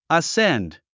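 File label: "ascend", English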